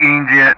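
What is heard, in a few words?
Speech Sound Russian Common Player Alphabet; India; DCS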